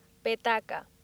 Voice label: "petaca"; laboratori fonetica; practiques accent